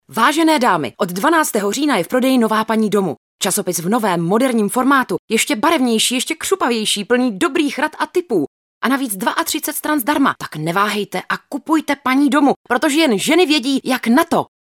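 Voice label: female Czech commercial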